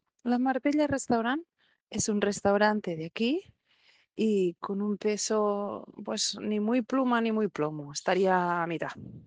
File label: Voice message